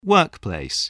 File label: "workplace", voice, english